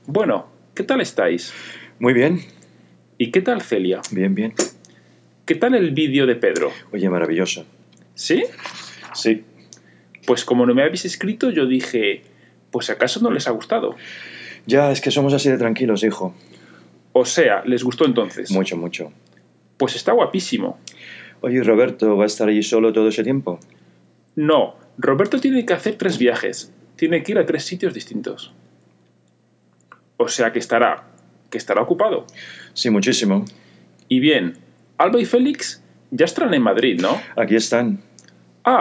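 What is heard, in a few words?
es-ES Broadband sample, Spanish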